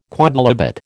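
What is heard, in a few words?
"quodlibet", American English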